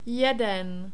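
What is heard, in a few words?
"jeden", Czech, male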